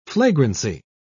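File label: "flagrancy", British English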